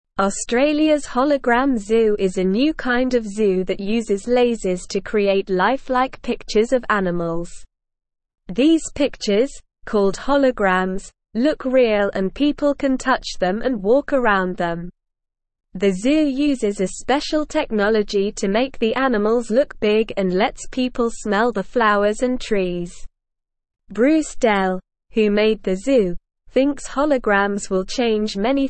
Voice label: English Newsroom Lower Intermediate SLOW Reading; Australias New Zoo Pretend Animals Made with Light